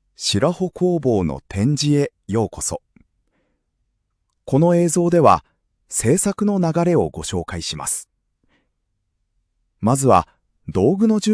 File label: narration